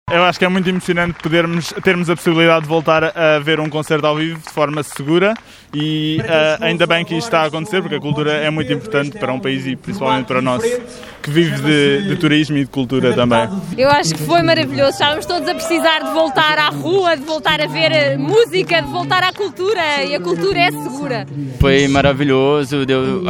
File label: VOx POP